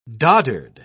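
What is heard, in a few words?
"doddered", British English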